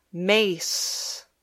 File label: "mace", English